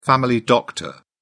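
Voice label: "family doctor", English, British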